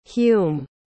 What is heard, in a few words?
"hume", pronounce